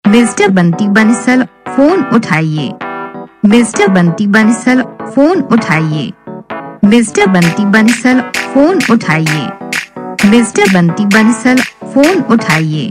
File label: Phone Uthao, Ringtones